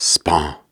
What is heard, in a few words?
Soft Syllables and Imaginary Words, Viking Vocal